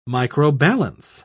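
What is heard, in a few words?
"microbalance", British English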